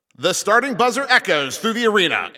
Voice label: Game Start, Announcer